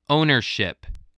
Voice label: "ownership", English voice